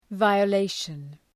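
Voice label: "violation", English word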